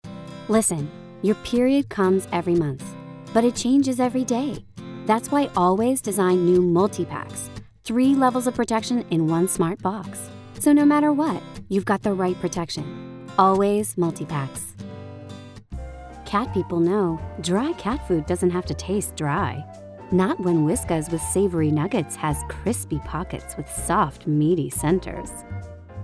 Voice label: Commercial Reel

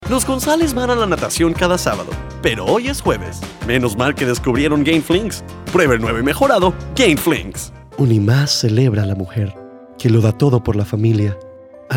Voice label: Voice Over Spanish

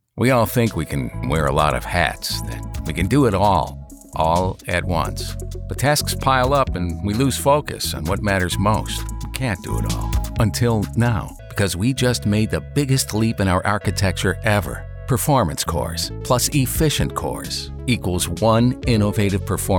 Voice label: Narration Documentaries

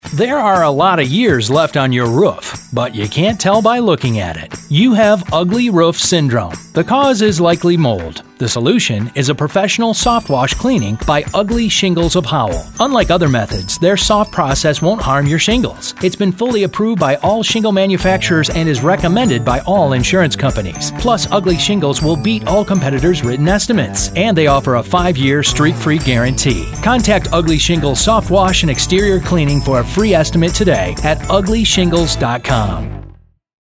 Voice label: Radio Commercial

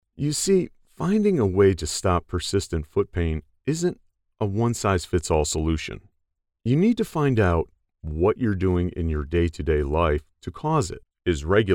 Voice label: Narration Samples

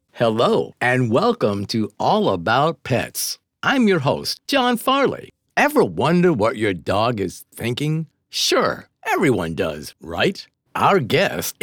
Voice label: PODCAST

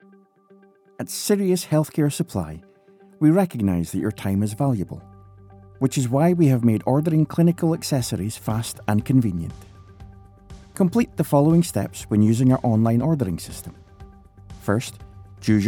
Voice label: e-learning; MEDICAL ORDERING SYSTEM

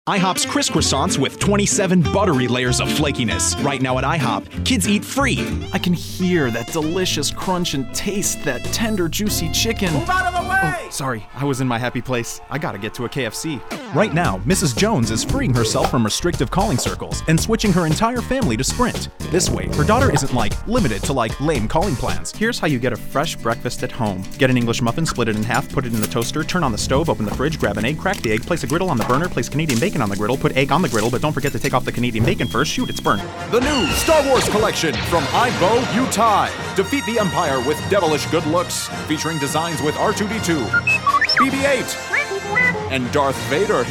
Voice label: Commercial

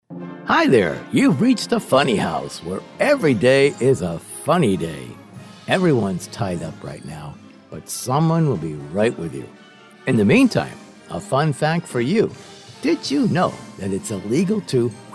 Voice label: VOICE GREETING FUNNY

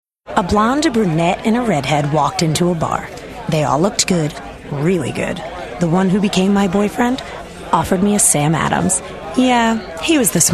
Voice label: commercial

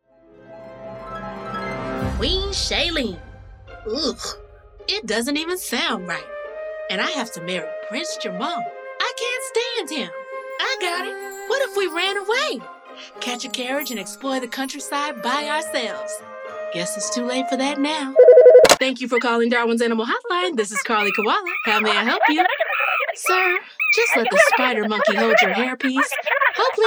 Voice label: Animation

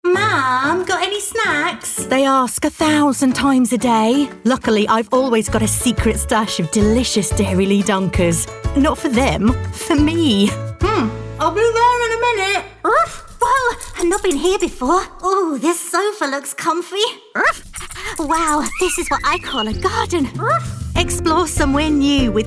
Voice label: Commercial

